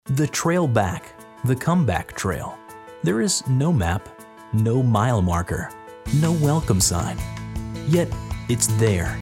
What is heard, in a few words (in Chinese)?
外籍男英 外语 美式英语 走心年轻活力 年轻